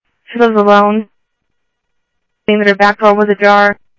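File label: Sheriff West County, scanner audio trunk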